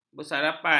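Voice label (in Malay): "bersarapan", Malay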